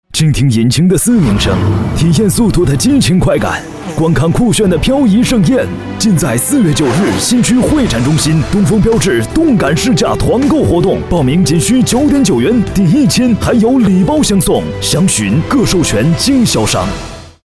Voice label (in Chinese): 男 活动促销; 亨诚标致